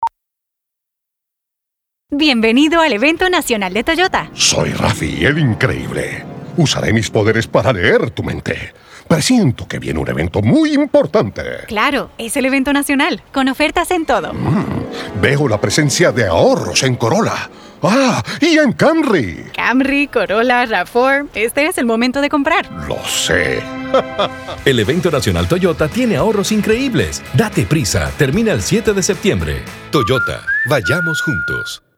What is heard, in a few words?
Radio; Toyota; August National Sales Event